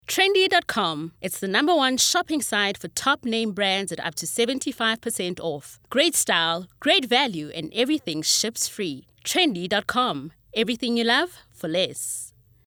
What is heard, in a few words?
COMMERCIAL